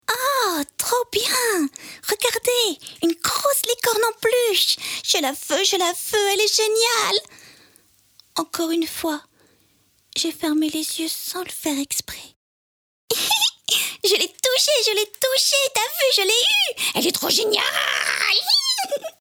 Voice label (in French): Dessin Animé Petite Fille; voix; Tessiture Voix Médium – Aiguë